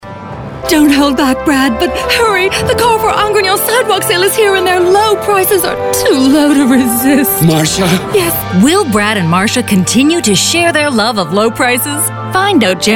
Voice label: Character Announcer in same ad

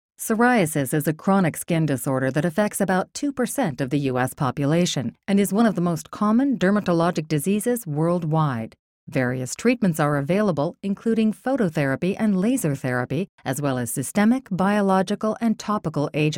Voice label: Serious Mature

